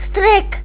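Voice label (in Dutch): "strik", Dutch; tdegames; ktuberling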